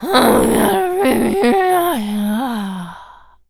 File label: Zombies Waitress Taunt; zps